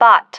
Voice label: "bot", female